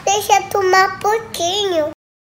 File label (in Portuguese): Criança, Deixa eu tomar um pouquinho